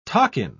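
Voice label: "takin", British English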